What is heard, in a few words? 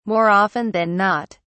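"more often than not", British English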